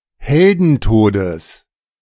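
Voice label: "Heldentodes", German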